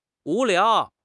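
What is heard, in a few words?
"wu2 liao2", Mandarin Chinese; HSK4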